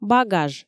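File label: "багаж" Komi, female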